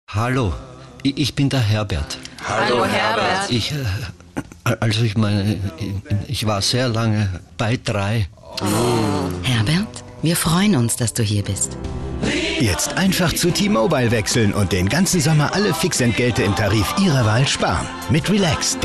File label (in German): Hörfunk; Relax